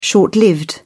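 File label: "short-lived", British English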